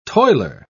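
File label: "toiler", British English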